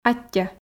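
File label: "аттьӧ", Komi, female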